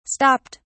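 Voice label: "stopped", English, British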